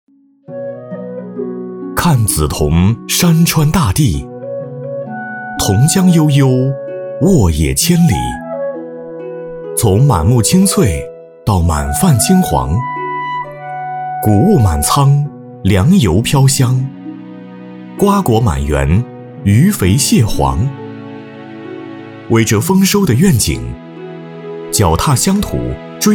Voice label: 走心旁白 温暖自然, 男; 大气主流; 舒缓抒情; 新农人的等待

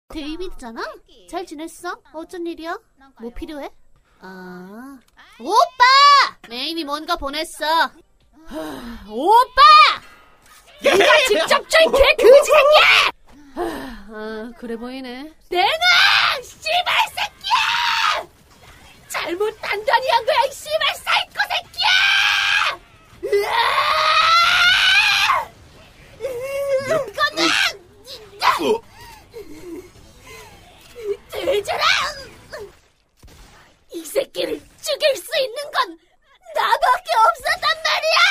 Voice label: 韩语女声

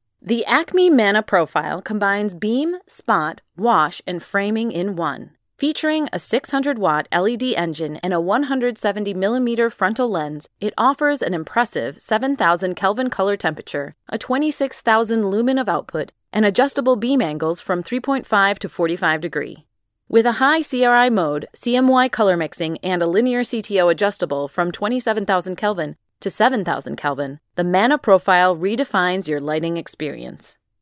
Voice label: 美式女声 干音

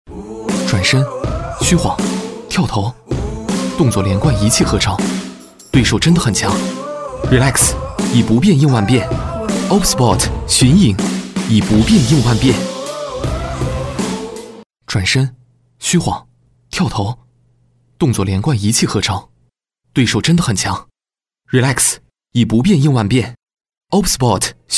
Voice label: 男 产品广告 时尚 个性; 年轻活力 科技时尚

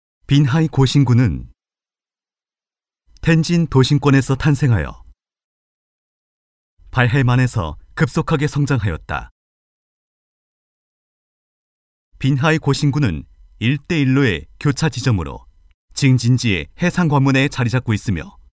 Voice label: 韩国男声

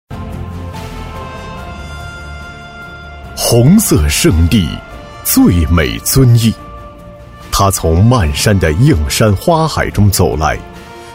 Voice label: 男 企业宣传 大气雅致; 《东方雅韵，珍酒传奇》; 男189-大气主流 高端大气

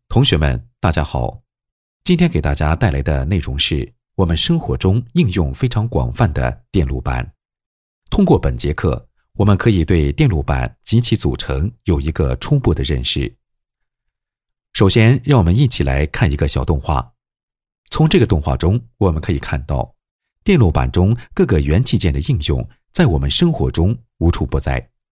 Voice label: 男, 多媒体课件配音, 电路板简介; 低沉磁性 高端大气

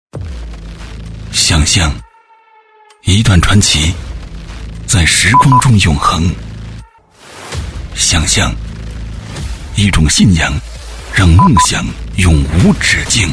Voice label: 男 走心宣传片 情绪递增 激情有力; 男94-高端厚重 大气浑厚; 电G级越野车

